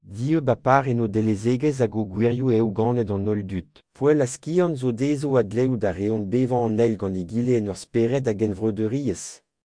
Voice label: breton

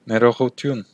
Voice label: excuse me, armenian western

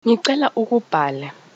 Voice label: write zulu

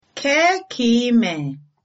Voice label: how are you, igbo

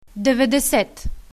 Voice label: ninety, bulgarian, numbers

